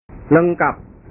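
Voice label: "lengkap", Indonesian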